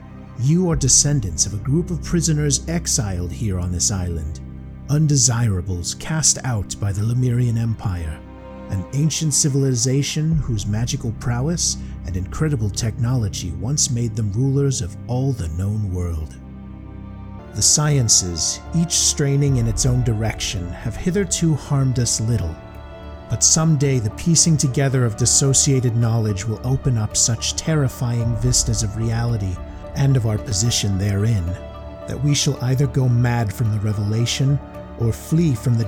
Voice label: Narration, Professional Male Voice Over